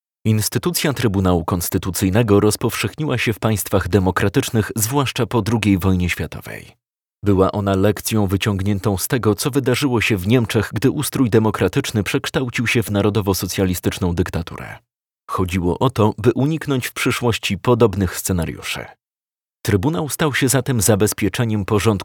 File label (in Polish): narracja